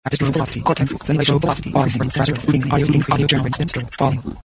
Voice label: comm garbled speech fast